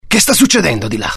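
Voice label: curious, Italian; mohaa; dialogue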